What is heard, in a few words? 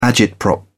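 "agitprop", British English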